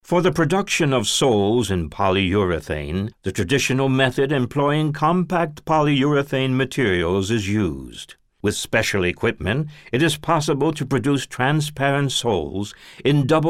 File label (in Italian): inglese usa